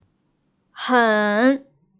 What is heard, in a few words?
"hen3", Pinyin, Chinese